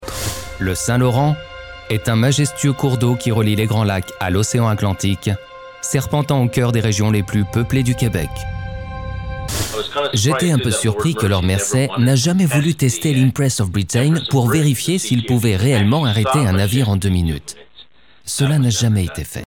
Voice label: french corporate